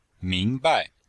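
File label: "ming2bai5", Chinese; Cours de Chinois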